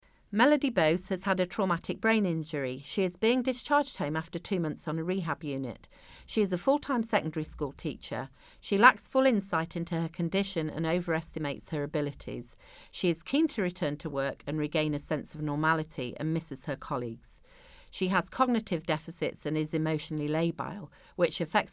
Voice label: narration; AHP advisory fitness for work report; professional development